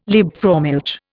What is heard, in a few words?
"Liebfraumilch", Indian